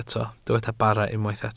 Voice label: "bara", Welsh